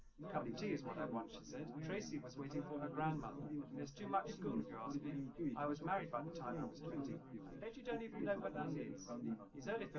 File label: male liverec 250ms 5cm; SiSEC10; underdetermined